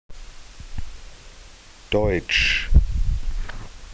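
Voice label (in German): "Deutsch", German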